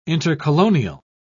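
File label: "intercolonial", English, British English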